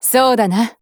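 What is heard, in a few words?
Feeling, Japanese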